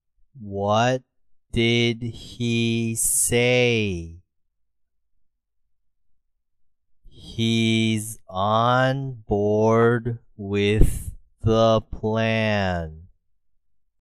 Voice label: slow